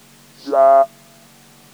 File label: fricative